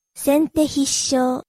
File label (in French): Prononciation de sente hissho